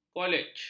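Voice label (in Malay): "kolej", Malay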